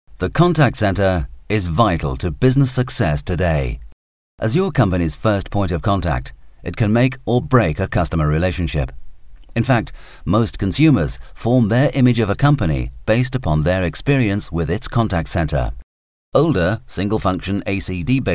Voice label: Narration